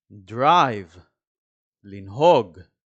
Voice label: "drive" – "לנהוג"